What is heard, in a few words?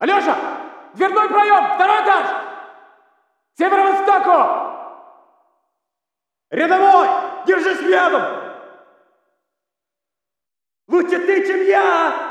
walla rus mountain chatter; modern warfare 2; amb walla